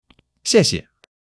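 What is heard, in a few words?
"xièxie", Chinese; 基础汉语40课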